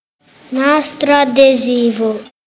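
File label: "adhesive tape", Italian; classroom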